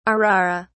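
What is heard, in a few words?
"arara", British English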